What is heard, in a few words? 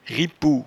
"ripou", patois jura